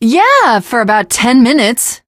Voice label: teengirl, voice; Left 4 Dead 2; l4d1 finale